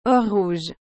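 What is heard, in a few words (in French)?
"or rouge", French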